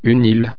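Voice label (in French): "ile", French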